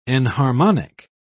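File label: "enharmonic", British English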